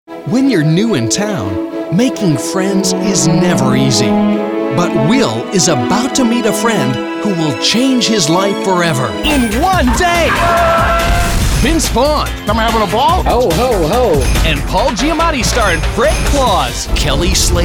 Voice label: movie trailer